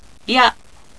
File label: "rya", Japanese